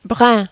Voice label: "brin", French

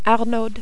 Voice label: "Arnaude", French

